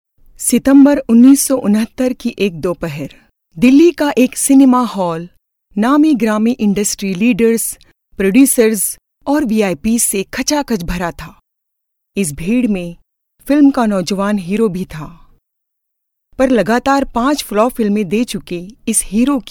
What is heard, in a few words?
Urdu female